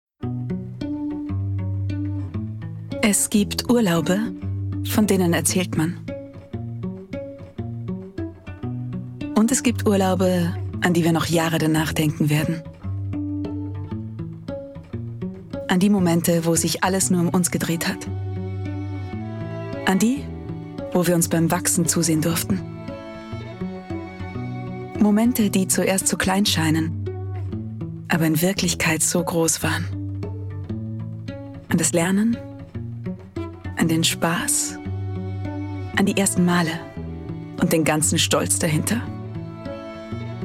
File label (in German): Frau OFF